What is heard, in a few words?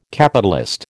"Capitalist", American